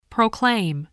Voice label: "proclaim", voice, spelling